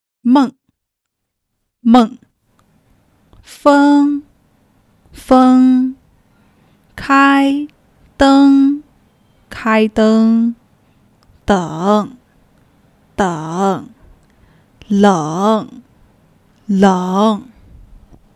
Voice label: eng